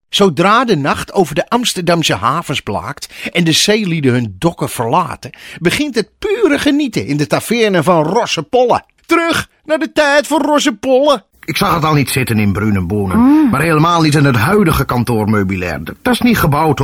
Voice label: dialect

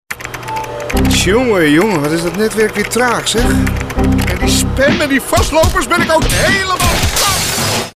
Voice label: ongeduldig, emoties

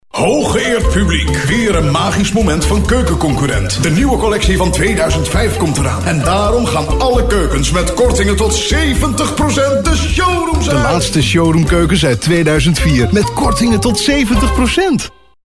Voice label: showmaster, typetjes

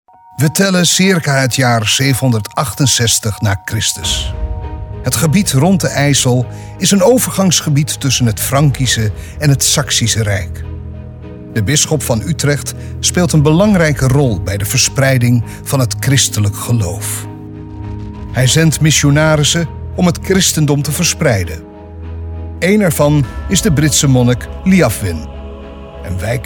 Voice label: documentaire

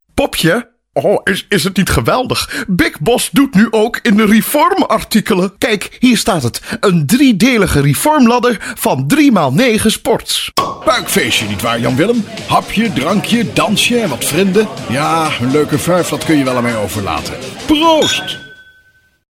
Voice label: bekakte man

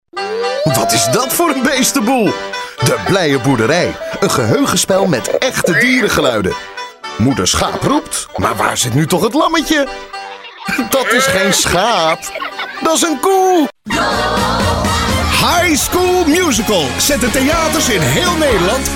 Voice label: vrolijk

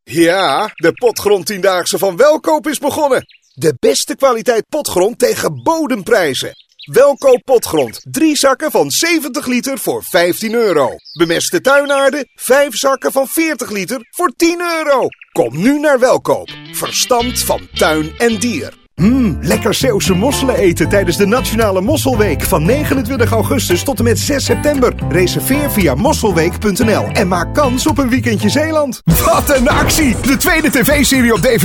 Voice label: hardsel wervend

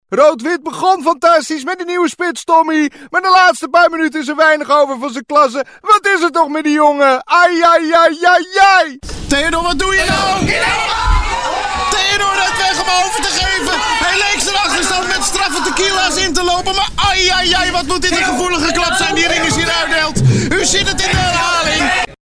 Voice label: sportcommentator

